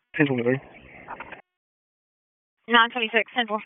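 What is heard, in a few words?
Sheriff's Office Dispatch 1, p25; wake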